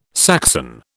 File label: "Saxon", British